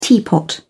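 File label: "teapot", British English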